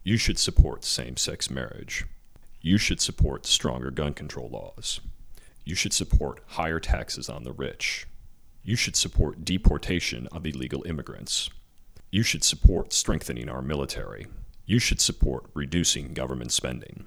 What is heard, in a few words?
male LOW, voice pitch; voice pitch 2018